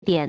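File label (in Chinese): "点", Chinese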